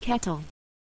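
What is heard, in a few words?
"kettle", English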